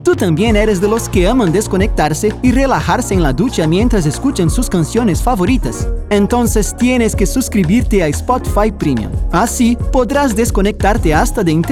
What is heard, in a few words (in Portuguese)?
Portfolio Espanhol